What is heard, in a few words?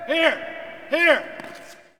Hockey Male "Here"; Hockey Vocal Sounds